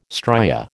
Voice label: "stria", American